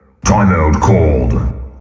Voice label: timeout called, announcer robotic

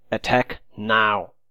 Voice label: "attack", voice

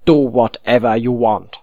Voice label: "freelance", voice

